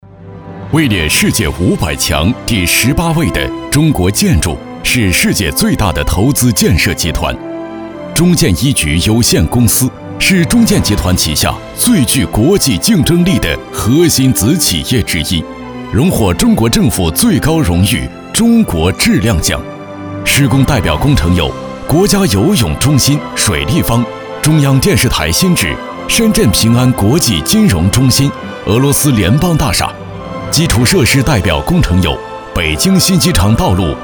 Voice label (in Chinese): 男 专题 雄安高铁站